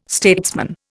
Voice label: "statesman", Indian

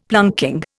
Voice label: "planking", Indian; Dictionary

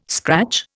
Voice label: "scratch", Indian

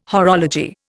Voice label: "horology", Indian

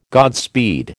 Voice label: "Godspeed", American

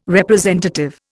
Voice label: "representative", Indian

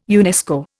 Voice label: "UNESCO", Indian

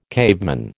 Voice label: "caveman", American

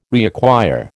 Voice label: "reacquire", American

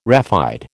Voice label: "raphide", American

